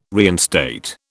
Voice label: "reinstate", British